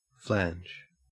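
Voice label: "flange", Australian English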